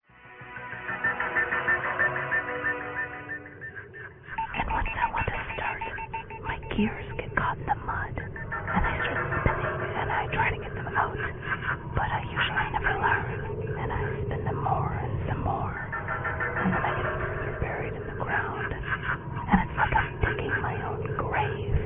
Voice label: whisper